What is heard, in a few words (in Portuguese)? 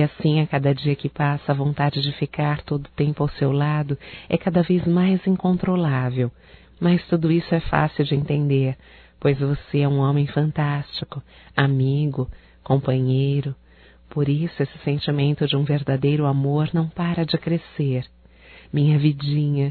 ANIV DE NAMORO (F), Telemensagens Românticas fem